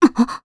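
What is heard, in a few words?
voice Sur1, voices heroes, Japanese; Scarlet; kingsraid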